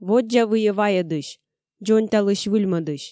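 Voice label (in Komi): "воддза выйӧ вайӧдысь, дзоньталысь-выльмӧдысь", Komi, female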